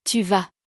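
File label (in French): "tu vas", French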